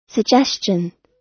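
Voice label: "suggestion", US English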